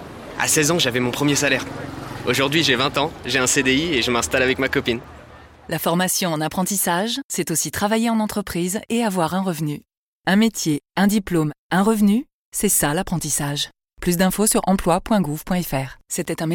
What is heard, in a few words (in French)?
campagne de promotion de l alternance